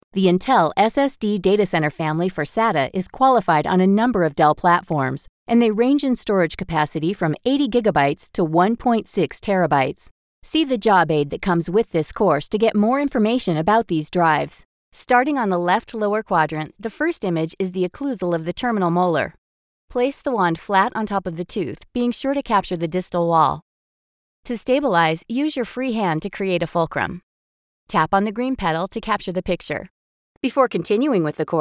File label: women elearning